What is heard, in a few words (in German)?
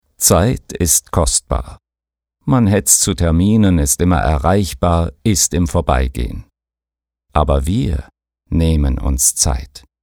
Sanft